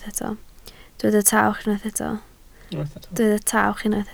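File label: "tawch" Welsh, spoken word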